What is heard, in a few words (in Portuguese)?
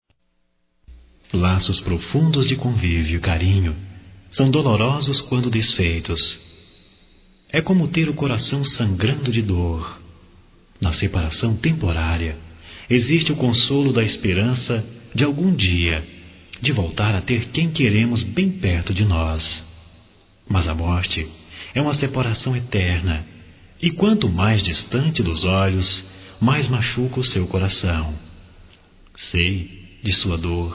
Pesames Masculino